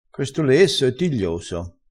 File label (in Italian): "tiglioso", crespinese